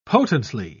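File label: "potently", British English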